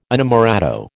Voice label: "inamorato", American